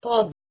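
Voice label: "pod", Proto-Indo-European; eastern origins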